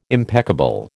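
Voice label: "impeccable", American English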